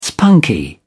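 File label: "spunky", English, British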